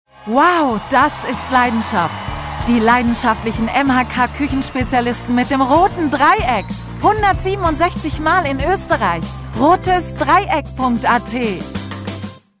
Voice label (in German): Werbung; Rotes Dreieck